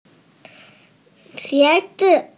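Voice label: "qie2zi5", Mandarin Chinese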